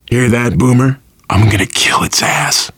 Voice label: heard boomer, survivor voice, biker; Left 4 Dead 2